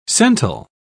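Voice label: "cental", British English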